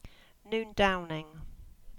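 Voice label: "Noondowning", English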